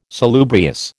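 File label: "salubrious", American English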